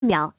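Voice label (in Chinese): "秒", Chinese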